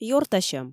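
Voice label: "ёртасьӧм", Komi, female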